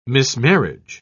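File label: "mismarriage", British English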